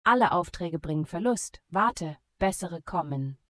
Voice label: all negative hint easy, voice de; logistik

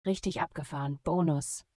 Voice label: autobahn success easy, voice de; logistik

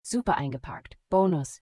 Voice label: minigame perfect easy, voice de; logistik

